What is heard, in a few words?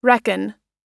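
"reckon", US English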